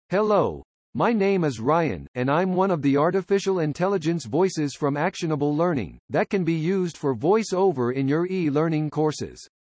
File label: Male Voice-Over; animation